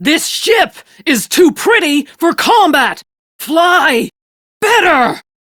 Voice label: Crew Ship Low, Steel Meridian Female; Dialog; en; Warframe; RailjackCrew

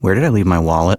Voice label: Citizen Male, en; Citizens

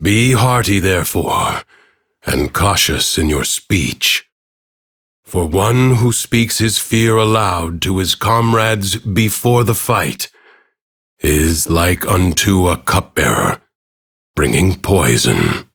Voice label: Ambient Bark Fear, en; Warframe; Duviri; Teshin

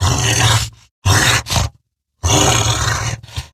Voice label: Idle Grineer Wolf, en; Battle Barks

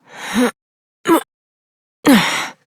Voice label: Horse Mount Adult, Dialog; Duviri

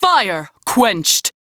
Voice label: Crew Fix Fire, Female; en; Warframe; Railjack Crew; New Loka

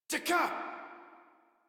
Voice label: Surprised Modular Corpus Male; Dialog; Barks; Battle; Warframe

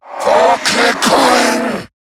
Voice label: Attack, en; Zariman Wraith

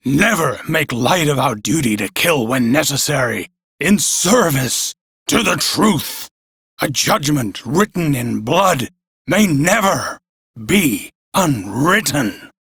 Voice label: Crew Idle, Male, en

